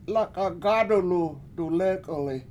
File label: lAk7a7 gAAdulu dulEk71li He Is Cooking With One Hand, Washo